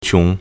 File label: "qiong2", Chinese